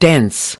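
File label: "dense", English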